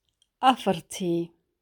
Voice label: 4 oclock, Somali; Somali For Kids; Learn Somali!